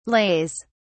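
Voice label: "lays", British English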